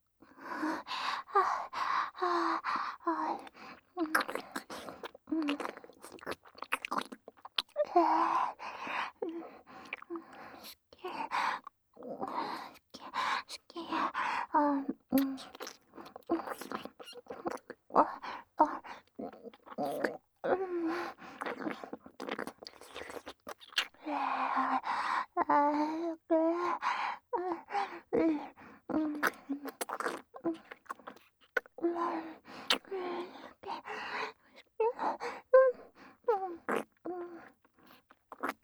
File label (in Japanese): 超密着好き好き連呼ベロチューえっちループトラック, ASMR; 高身長バレー部むちむち爆乳彼女との、真夏の蒸し蒸し汗だく溺愛搾精えっち